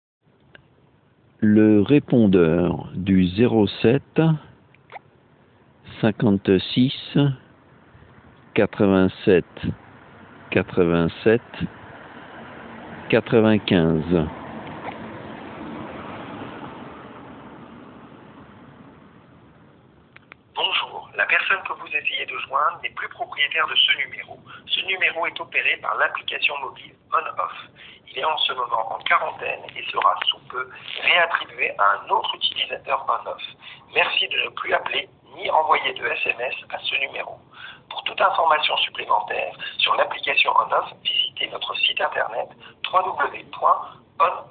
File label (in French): Repondeur proprietaire trottinette, repondeur